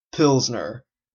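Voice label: "pilsner", Canadian English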